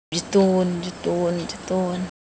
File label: Semai, ideophones; Motion